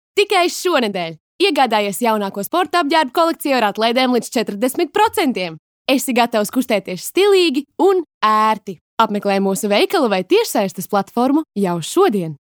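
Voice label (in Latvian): Reklama LV, advert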